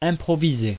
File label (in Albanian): "improviser", frengjisht